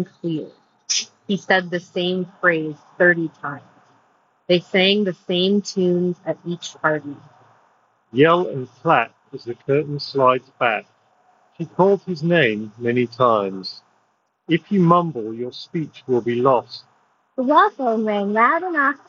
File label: Street microphone sample; 1More Sonoflow